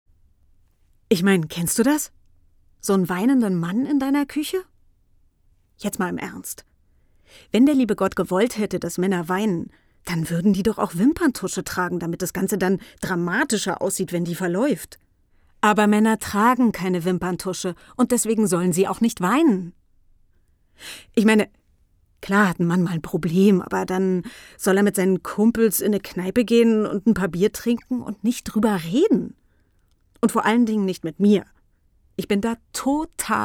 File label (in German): HOERBUCH Abends in der Kueche